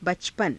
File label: "bacapana", hindi